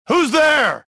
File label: suspicious; XBOX; rebel